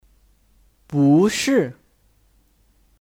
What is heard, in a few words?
"不是", Búshì; Bukan